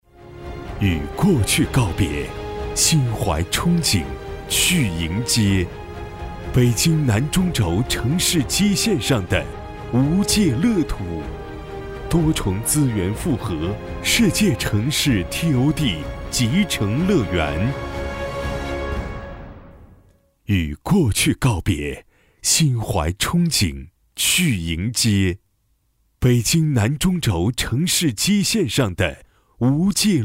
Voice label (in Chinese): 男 广告; 房产